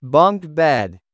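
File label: "bunk bed", English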